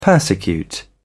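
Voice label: "persecute", British English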